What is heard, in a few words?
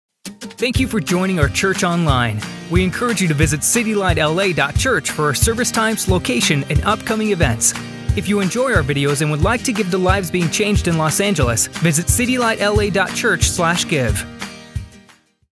english american promos